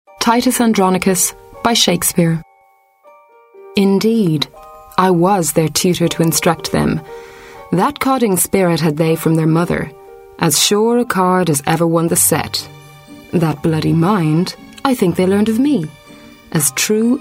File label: irish female, readings